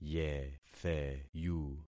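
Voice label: "yetheyu", concatenated syllables; Affixing experiment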